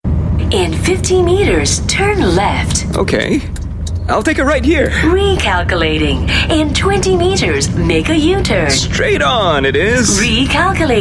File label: Asian English Coke break; Coca-Cola Far East